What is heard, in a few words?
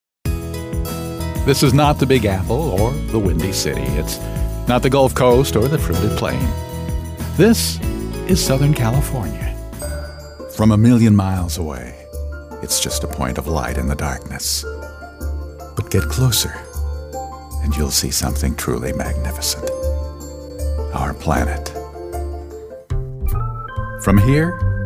Jazzy Spots